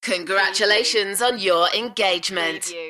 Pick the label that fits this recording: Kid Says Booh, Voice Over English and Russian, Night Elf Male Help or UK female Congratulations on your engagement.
UK female Congratulations on your engagement